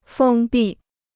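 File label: "封闭", Chinese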